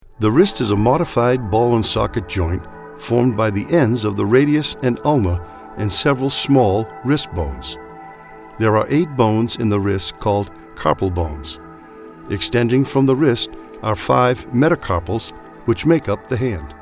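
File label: medical, Voiceover Actor and Narration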